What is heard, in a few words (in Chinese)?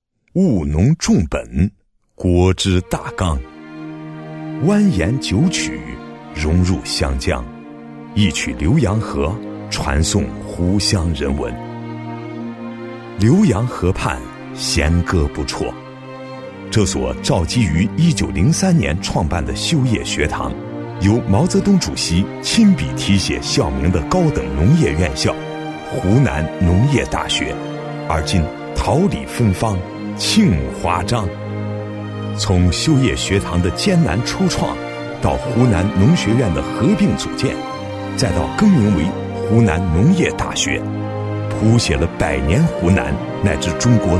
男 企业宣传; 湖南农大